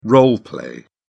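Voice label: "role play", British English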